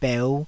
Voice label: "beu5", taiwanese